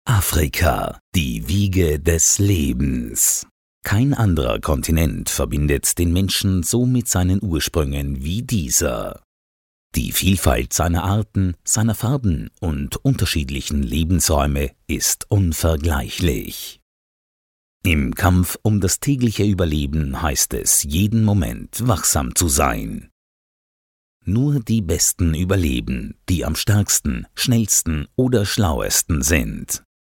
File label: german voice documentary